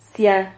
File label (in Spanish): "zia", Silabas